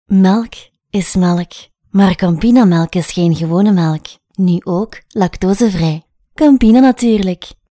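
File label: Flemish Belgium, female, voice over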